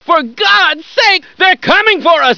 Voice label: look shooting, hostage reactions; czero opforce